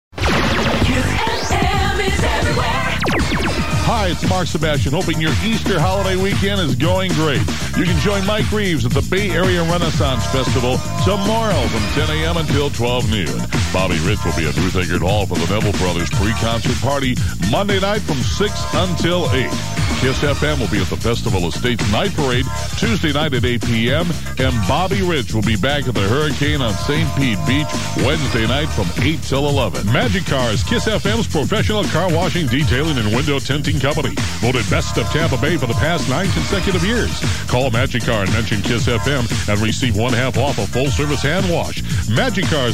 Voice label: promo; WAKS; KISS-FM Is Everywhere

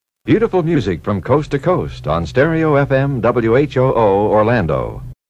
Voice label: Station ID

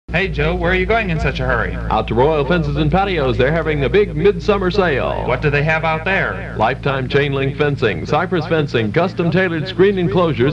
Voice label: commercial; WHAN; Royal Fences and Patios

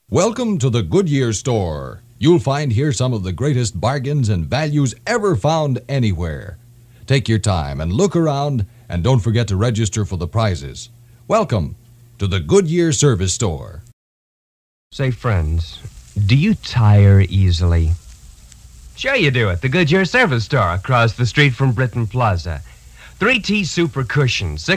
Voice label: commercials; Lost Prod Trax; Goodyear; ca.1957

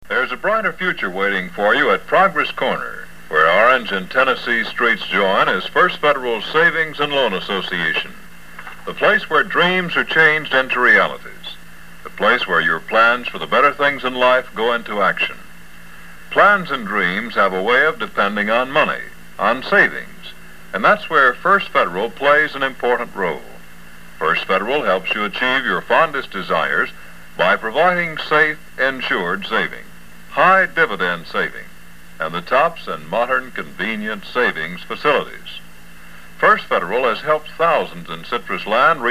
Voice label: commercial; WLAK; First Federal

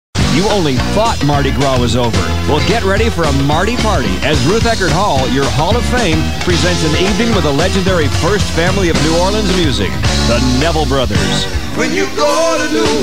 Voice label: concert promo; WAKS

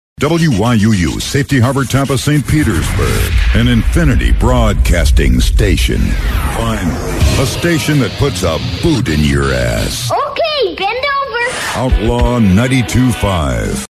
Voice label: Station ID; WYUU

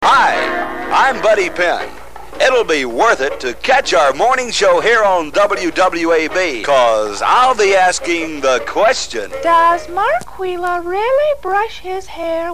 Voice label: promo; WWAB

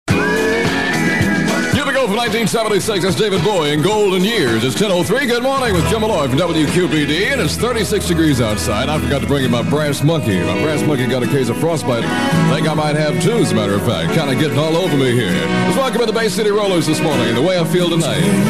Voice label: aircheck; WQPD

